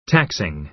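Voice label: "taxing", American English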